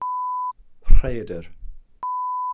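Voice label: "rhaeadr", Welsh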